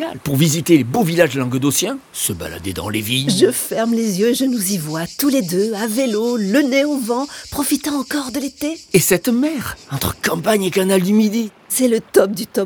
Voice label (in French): spot radio cap d'agde; toulouse et lyon